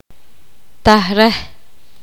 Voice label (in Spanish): poqomam sin filo